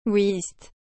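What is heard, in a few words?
"whist", French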